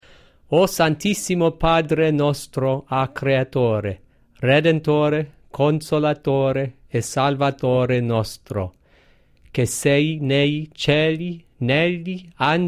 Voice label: Italian Diction

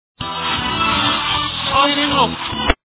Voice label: reverse; Evidencias cristianas

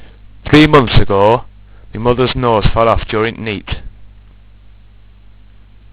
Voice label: yorkshire; languages; regional

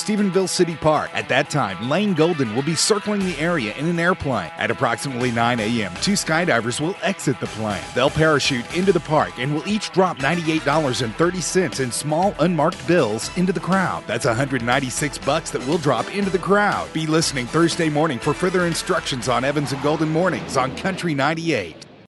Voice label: Cash Give Away Promo

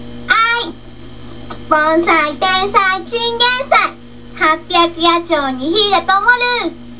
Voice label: Japanese

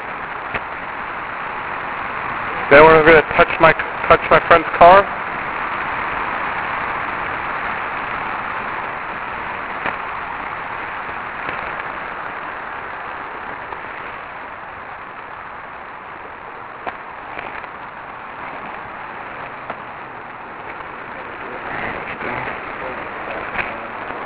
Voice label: Overpass Voice Full; Sweet Hollow Road

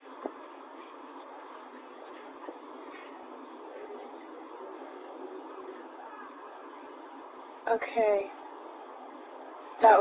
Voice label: Man yelling

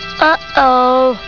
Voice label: uh oh

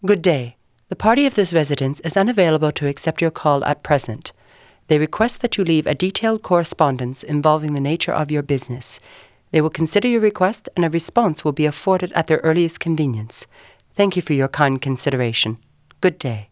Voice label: formal, phone messages

